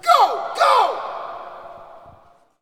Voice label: Hockey Male Go; Hockey Vocal Sounds